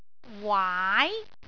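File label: "waai2", Cantonese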